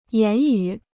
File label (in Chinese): "言语", Chinese